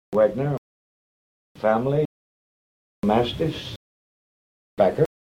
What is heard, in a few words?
kent trap, accents; denton